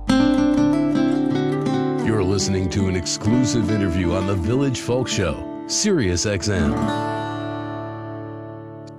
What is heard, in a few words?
advertisement